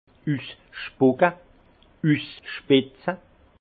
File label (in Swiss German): "usspucke", alsace; vin; cracher